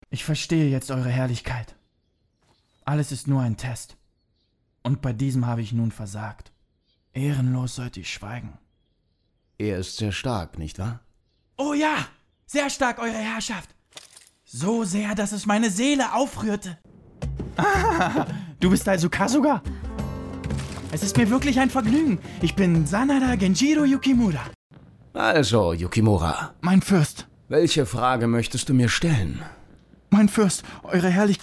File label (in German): samurai kings dramatisch